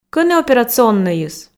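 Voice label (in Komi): кӧні операционнӧйыс, Komi, female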